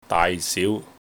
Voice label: "daai6 siu2", Cantonese

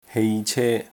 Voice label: "hei3 ce1", Cantonese

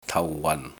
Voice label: "tau4 wan4", Cantonese

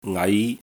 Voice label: "ngai2", cantonese

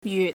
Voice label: "jyut6", cantonese

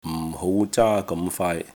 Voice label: "m4 hou2 zaa1 gam3 faai3", Cantonese

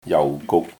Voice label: "jau4 guk6", cantonese

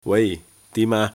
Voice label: "wai3 dim2 aa3", Cantonese

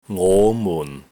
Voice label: "ngo5 mun4", cantonese

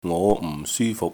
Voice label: "ngo5 m4 syu1 fuk6", Cantonese

